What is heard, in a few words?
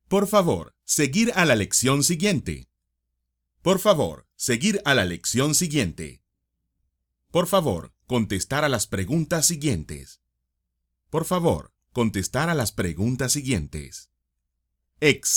English Lessons Commands